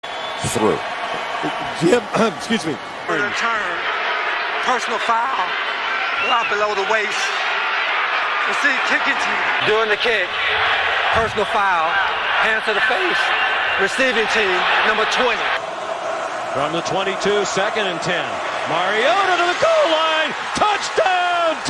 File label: English football reporter